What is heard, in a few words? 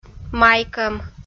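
"майкӧм", Komi, female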